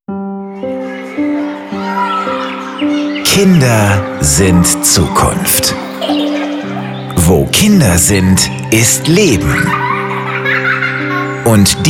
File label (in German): Promo; Kinder; BB RADIO